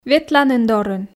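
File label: "ветланін дорын", Komi, female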